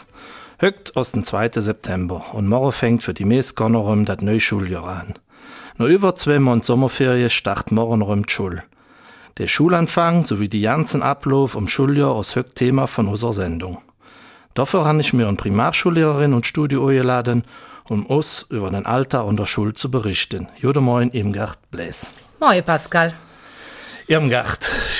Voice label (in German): Eifeler Mundart; Am 3 September ist Schulanfang